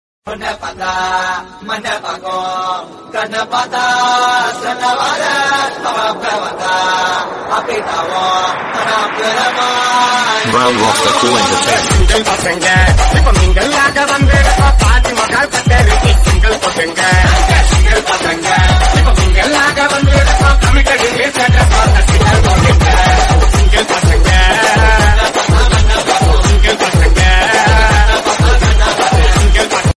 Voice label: dialogue tamil